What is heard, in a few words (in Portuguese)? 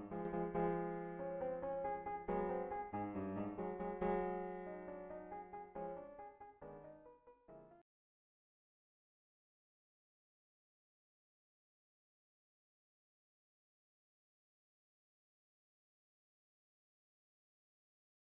Anuncio ao Amor